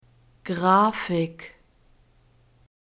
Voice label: "grafik", German